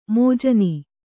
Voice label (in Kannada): "ಮಾಜನ", Kannada